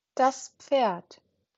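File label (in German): "Pferd", German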